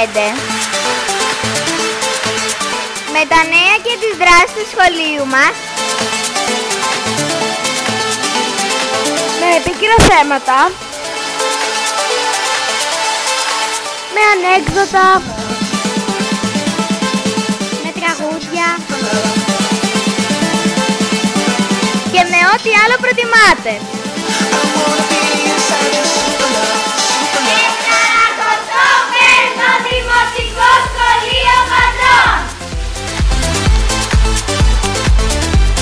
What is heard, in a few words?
SPOT RADIO